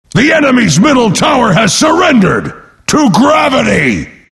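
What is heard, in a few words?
announcer tower fall enemy mid; Axe announcer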